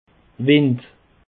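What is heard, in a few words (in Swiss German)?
"wind", Alsatian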